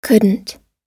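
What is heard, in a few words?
"couldn't", American English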